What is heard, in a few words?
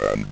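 "and", English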